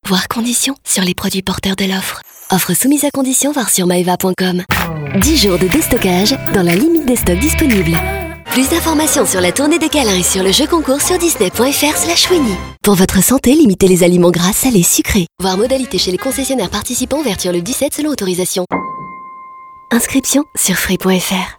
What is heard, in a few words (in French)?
mentions légales rapide ou très rapide